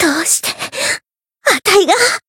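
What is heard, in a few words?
win barely, Japanese; harle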